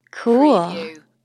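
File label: Woman Says Cool Mellow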